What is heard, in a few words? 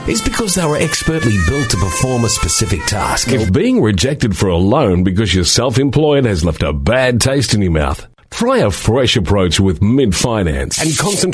AU English, Australian, Male